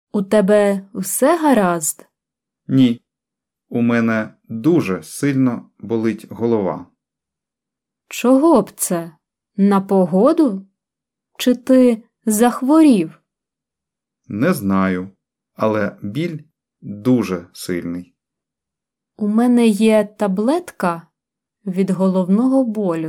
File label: Dialogue Slow